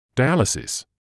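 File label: "dialysis", British English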